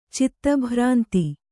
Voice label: "ಚಿತ್ತಭ್ರಾಂತಿ", Kannada

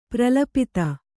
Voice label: "ಪ್ರಲಪಿತ", Kannada

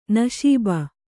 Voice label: "ನಸೀಬು", Kannada

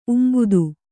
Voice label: "ಉಂಬುದು", Kannada

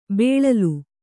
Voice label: "ಬೆಳಲು", kannada

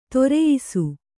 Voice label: "ಧರಿಯಿಸು", Kannada

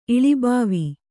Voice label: "ಇಳಿಬಾವಿ", Kannada

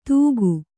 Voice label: "ದೂಗು", Kannada

